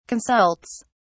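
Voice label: "consults", British English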